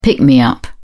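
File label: "pick-me-up", British English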